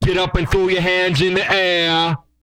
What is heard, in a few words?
get up, Vocal, R&B Dance, hip-hop; TB HIP-HOP